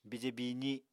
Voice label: "bije biizi", Apatani

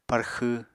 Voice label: "parxw", Apatani

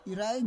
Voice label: "irai", Roon

